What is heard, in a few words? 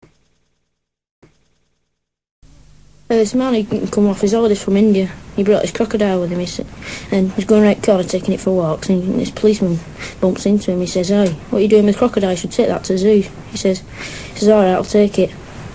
NORTHERN ENGLAND Leeds, Accents of English